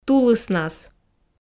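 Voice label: "тулыснас", Komi, female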